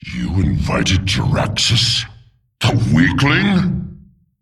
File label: Male Demon; Malchezaar